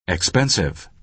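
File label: "expensive", American English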